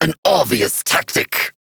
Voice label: Battle Taunt, Dialog; Kuva Lich; Persona C

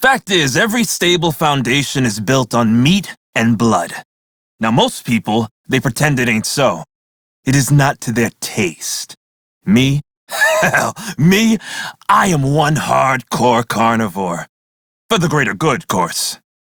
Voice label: crew idle, male; Warframe; Railjack; Red Veil

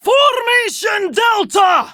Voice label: Attack Prime Grineer Female; Tau; Battle Barks

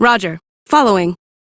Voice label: Follow, Female; en; Warframe; Railjack Crew; Cephalon Suda

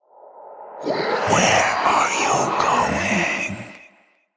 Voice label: Whispers; Warframe; Golem Quest; Golem Trial Monster; Jordas

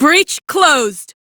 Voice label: Fix Breach, Female; en; Warframe; Railjack Crew; Cephalon Suda

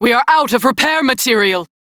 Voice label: Crew Need Revolite, Female; Dialog; en; Warframe; Railjack Crew; New Loka

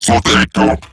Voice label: Cover Me Robot Large; Dialog; Barks; Battle; en; Eximus Corpus; Corpus Robotic Large

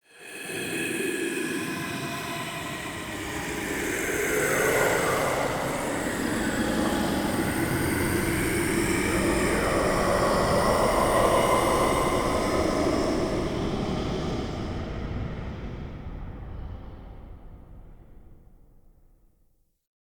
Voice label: Spirit Talk, Ambience, Crypt; Champion Crypt

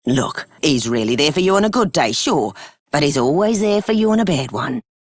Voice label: Female Scum, en; Warframe; Solaris Venus

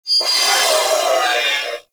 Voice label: Sentient Death, Barks; Enemies; Warframe; Symbilyst

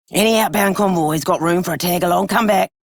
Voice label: Female Radio, en; Dialog; Warframe; Solaris Venus

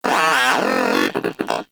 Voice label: Moa Aggressive Vocal Bored Lets Go; Warframe; Characters; Pets

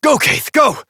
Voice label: Horse Sprint Adult; Duviri

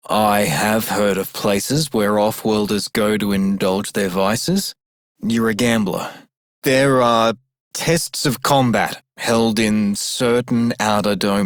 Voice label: Male Worker, en; Dialog; Warframe; Solaris Venus

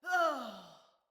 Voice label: Female, Battle Barks; Envoy

